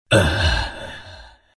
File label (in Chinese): pain; zh